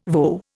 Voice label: "voe", Indian